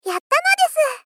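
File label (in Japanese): Moe Victory Yatta nodesu, moe loli girl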